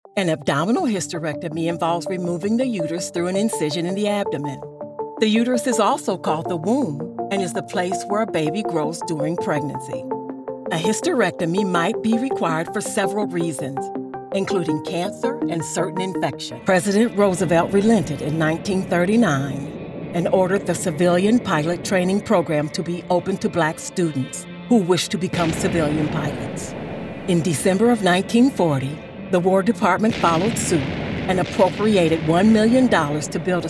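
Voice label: Narration, Voice Over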